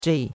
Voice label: "zhei4", chinois; Cours-de-Chinois

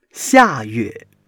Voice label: "xia4 yue4", Chinese

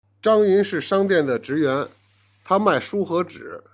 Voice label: "jian", Chinese

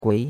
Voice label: "gui2", chinois; Cours de Chinois

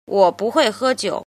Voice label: "jin", Chinese

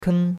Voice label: "ken1", chinois; Cours-de-Chinois

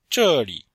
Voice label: "zhe4li5", Chinese

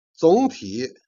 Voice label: "jian", Chinese

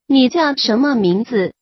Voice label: Mandarin Conversation

